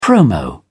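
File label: "promo", British English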